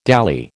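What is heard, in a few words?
"dally", American English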